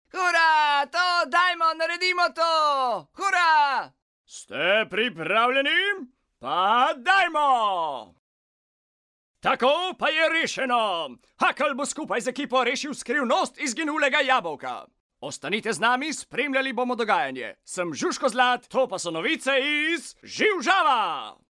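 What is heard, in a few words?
slovenian male character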